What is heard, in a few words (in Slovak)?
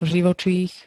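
"živočích", slovenské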